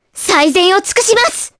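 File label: Vox Skill Japanese; Mirianne; kingsraid; jp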